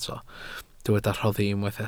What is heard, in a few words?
"rhoddi", Welsh